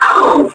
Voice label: pain, metropolice